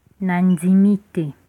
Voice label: sorry, Lingala

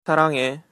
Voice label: i love you, korean

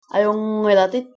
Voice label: how are you, greenlandic

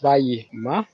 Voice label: leave me alone, wolof

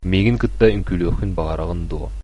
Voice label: dance, sakha

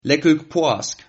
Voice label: easter, Sylt Frisian

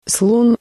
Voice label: "elephant", Russian; слон; Rosetta Stone Russian

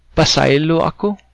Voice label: forgive me, cebuano

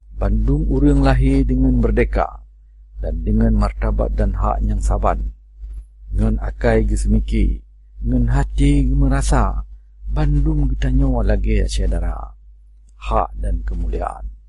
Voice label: Acehnese

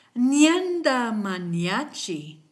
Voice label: excuse me, kichwa

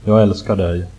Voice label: i love you, swedish

